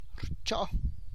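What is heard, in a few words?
Tlacochahuaya Zapotec; you warm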